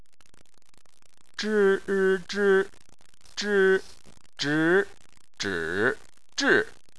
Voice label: "zhi", Chinese

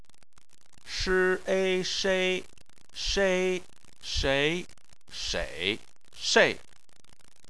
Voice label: "shei", chinese

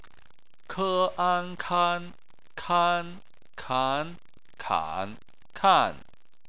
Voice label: "kan", chinese

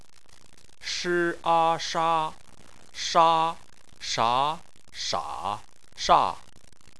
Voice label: "sha", chinese

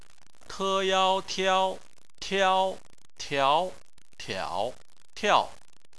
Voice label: "tiao", chinese

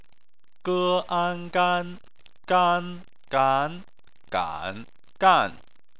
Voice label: "gan", chinese

